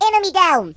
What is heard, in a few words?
Enemydown, Russian; hedgewars